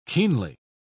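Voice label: "keenly", British English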